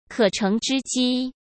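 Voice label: "可乘之机" Mandarin Chinese; hsk; chinese-flashcards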